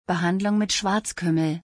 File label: Treatment with black seed in German